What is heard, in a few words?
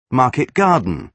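"market garden", British English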